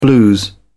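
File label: "blues", British English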